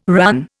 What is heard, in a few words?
"RAN", Indian